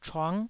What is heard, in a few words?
"chuang9", taiwanese